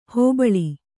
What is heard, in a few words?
"ಹೆಬ್ಬಳ", Kannada